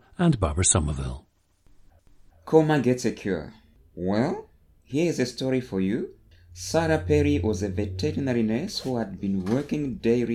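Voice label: malawi, International Dialects of English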